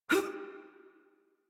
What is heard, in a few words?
Adult Operator Jump, en; Warframe; New War